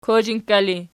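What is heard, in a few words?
"kozhinkka" ergative, Archi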